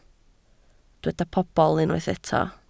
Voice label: "pobl", welsh

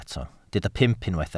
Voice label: "pump", Welsh

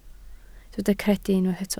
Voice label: "credu", Welsh

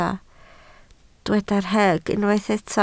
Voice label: "rheg", Welsh

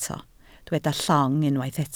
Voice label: "llong", Welsh

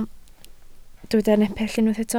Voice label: "nepell", Welsh

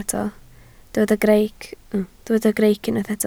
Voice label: "greic", Welsh

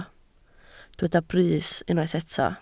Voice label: "brys", Welsh

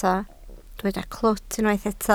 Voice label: "clwt", Welsh

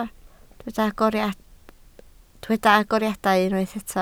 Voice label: "agoriadau", Welsh

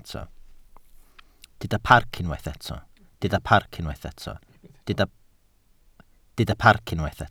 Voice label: "parc", Welsh

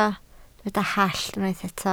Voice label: "hallt", Welsh

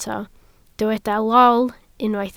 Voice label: "lol", Welsh

